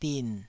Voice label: "din3", Taiwanese